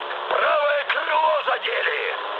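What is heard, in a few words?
right wing, mood high, Russian; War Thunder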